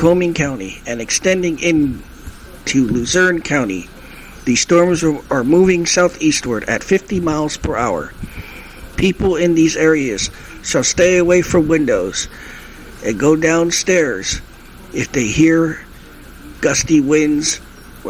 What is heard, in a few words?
weather beeping and announcement